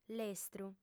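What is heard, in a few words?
"lestru", female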